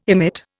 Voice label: "emit", Indian